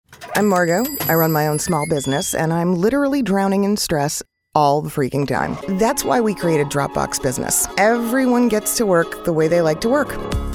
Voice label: english american corporate videos